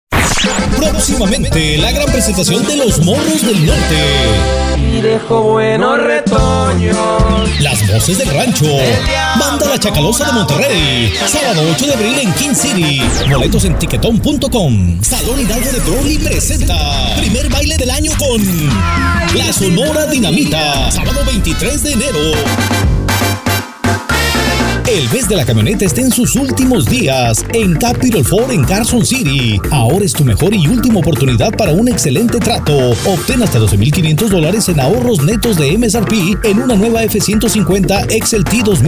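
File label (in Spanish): Voiceover, comerciales de radio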